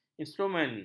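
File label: "instrumen", Malay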